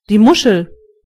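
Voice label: clam, German voices words; gcompris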